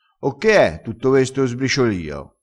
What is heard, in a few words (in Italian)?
"sbriciolio", crespinese